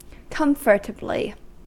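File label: "comfortably", US English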